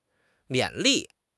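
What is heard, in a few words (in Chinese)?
"勉励", Chinese; HSK; HSK6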